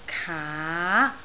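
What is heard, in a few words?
"kaa2", Cantonese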